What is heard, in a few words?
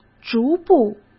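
"逐步", Mandarin Chinese; hsk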